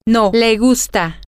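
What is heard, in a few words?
she likes no, spanish, female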